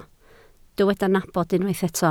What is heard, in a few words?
"nabod", Welsh